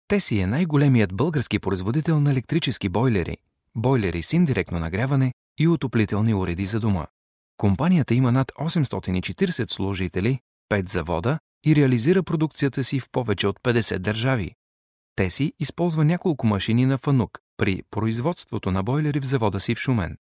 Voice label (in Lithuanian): (VYR) Bulgaru balsas; vyras